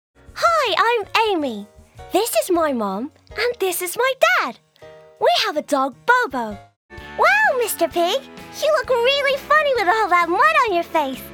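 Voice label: British, toys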